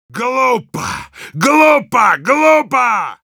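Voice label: Heavy jeers, Russian; «Глупо, глупо, глупо!»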